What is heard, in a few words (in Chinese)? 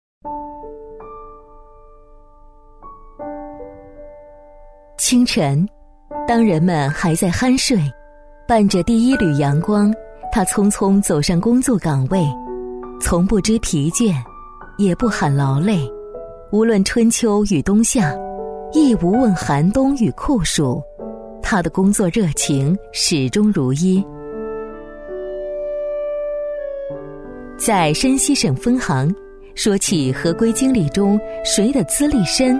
女7-人物专题 先进人物事迹 -温情讲述; 女7-大气温暖; 深情感人